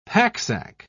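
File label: "packsack", British English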